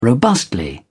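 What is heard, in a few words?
"robustly", British English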